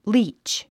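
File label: "leech", English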